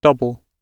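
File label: "double", British English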